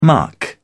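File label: "muck", British English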